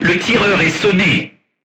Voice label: voice message gunlayer contused, crew tanks french